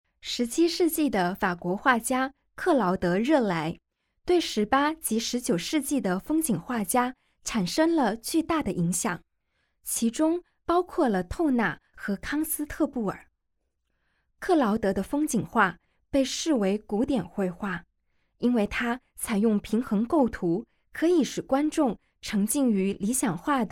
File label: Mandarin, audio guide; g9-botticelli-to-van-gogh